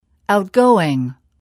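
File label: "outgoing", English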